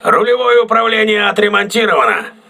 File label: rudder repaired, crew ships russian